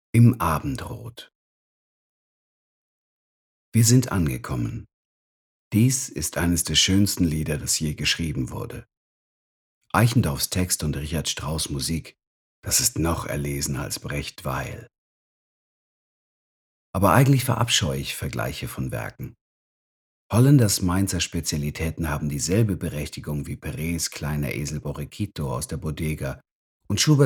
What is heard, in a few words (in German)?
Hörbuch